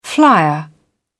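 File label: "flyer", English, British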